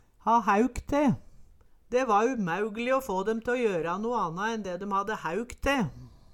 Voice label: ha hæuk te, Numedalsmål; De va umæugele å få døm te å jøra anna enn de døm hadde hæuk te

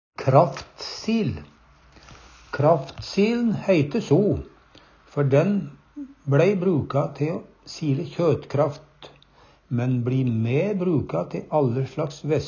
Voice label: kraftsil, Numedalsmål

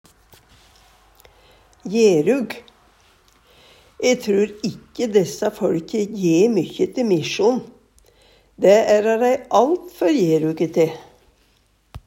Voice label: jerug, Numedalsmål

